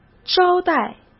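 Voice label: "招待", Mandarin Chinese; hsk; chinese flashcards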